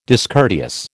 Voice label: "discourteous", American

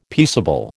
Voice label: "peaceable", American

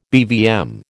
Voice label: "BVM", American

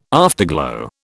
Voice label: "afterglow", British

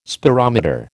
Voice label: "spirometer", American

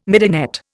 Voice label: "midinette", Indian

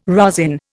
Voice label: "rosin", Indian

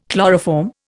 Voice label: "chloroform", Indian

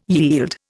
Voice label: "yield", Indian

